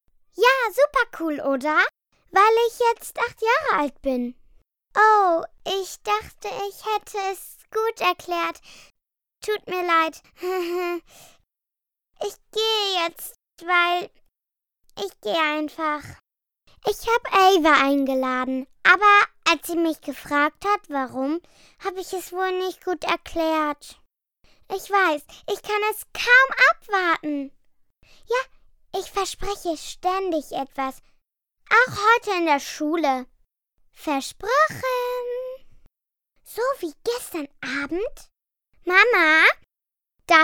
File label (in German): synchron; Kindersprecher